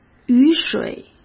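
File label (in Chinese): "雨水", Mandarin Chinese; chinese flashcards; hsk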